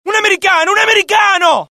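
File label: alert, Italian; mohaa; dialogue; sighted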